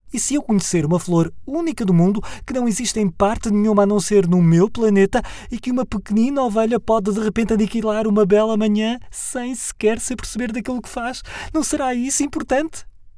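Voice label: Portuguese male character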